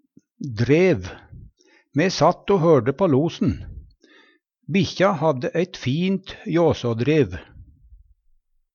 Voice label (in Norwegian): "drev", Numedalsmål; Kan også vera lite tannhjul i ein maskin